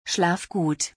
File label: Sleep well in German